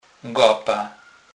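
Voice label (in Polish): "gapa", Kurpie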